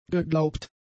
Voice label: "geglaubt", german; adjectives

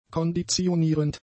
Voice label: "konditionierend", German; adjectives

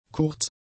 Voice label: "kurz", German; adjectives

